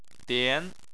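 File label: "dian" second tone, Chinese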